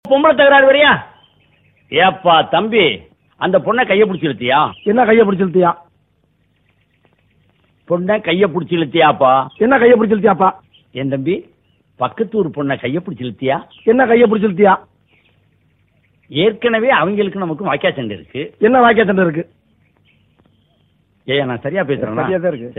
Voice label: Comedy; Vadivelu